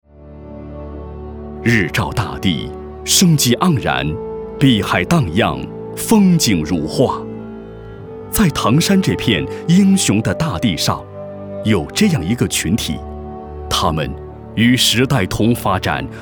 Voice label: 男 汇报; 唐山妇联

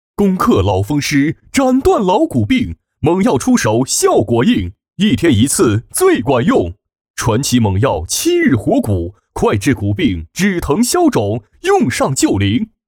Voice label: 男 促销; 蒙药治风湿

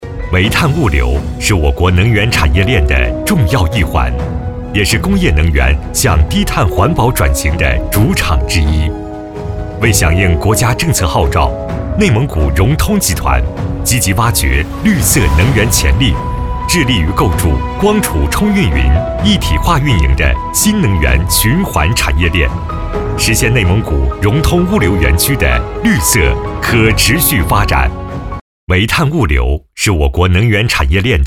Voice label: 男 项目介绍积极; 光储充运云

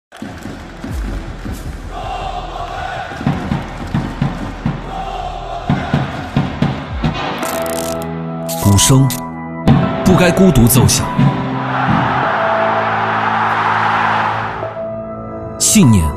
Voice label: 男 宣传片 足球中国 国足出征宣传

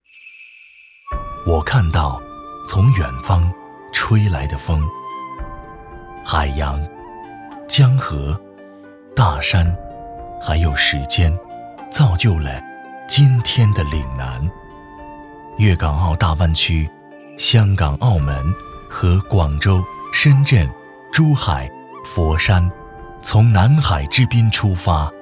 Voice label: 男, 宣传; 粤港澳大湾区

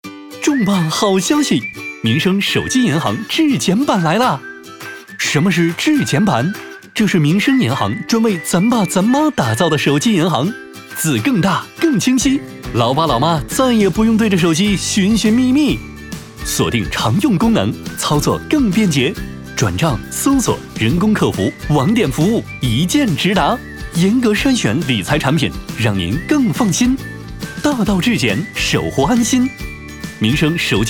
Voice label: 男 欢快 银行介绍; 飞碟

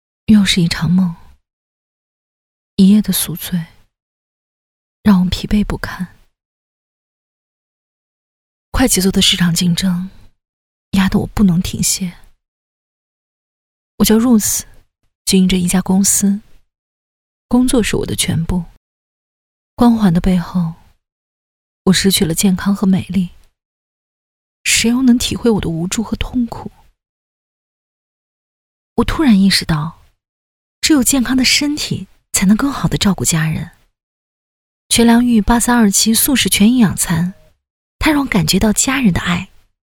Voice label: 女, 自然说话

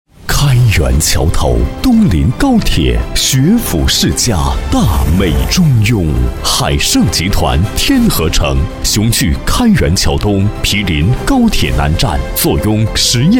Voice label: 房产广告 大气房产; 天和城

